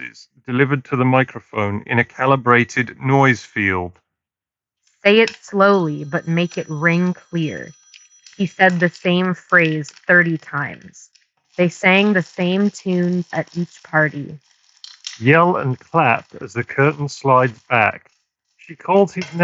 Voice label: Bose QuietComfort Ultra Earbuds 2nd Gen office